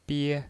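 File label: "bie", Mandarin Chinese, first tone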